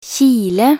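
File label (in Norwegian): "kile", Norwegian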